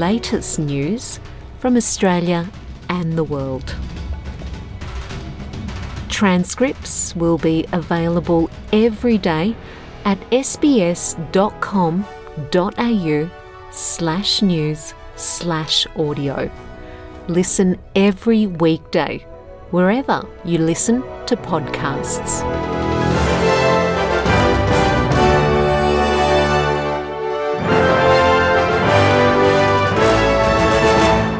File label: promo, easy English; Your daily news wrap in easy English.; SBS News in Easy English - coming soon